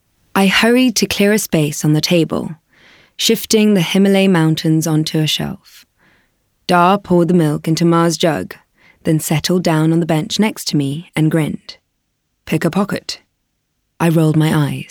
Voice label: Audiobook, Spanish, sultry and rhythmic